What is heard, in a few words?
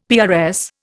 "PRS", Indian; Dictionary